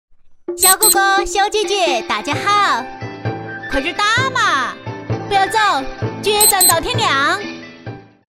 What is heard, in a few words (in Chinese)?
女 方言配音 棋牌游戏 四川话